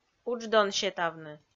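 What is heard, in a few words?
"удждон сетавны", Komi, female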